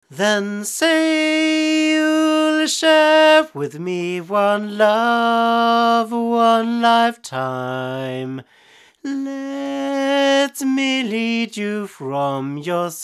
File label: male; Pearl TLC90; microphones